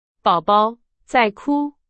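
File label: Chinese; hsk4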